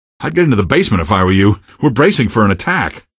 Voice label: citizen greet, male; Garry's Mod; hl2; odessa